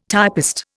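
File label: "typist", Indian English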